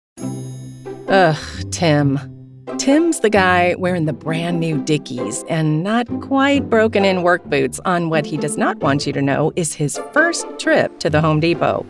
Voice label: eLearning